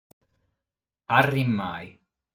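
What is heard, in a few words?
"Arrimai", Sardinian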